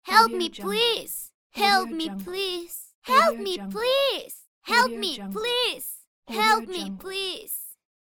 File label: teenage girl saying help me please pack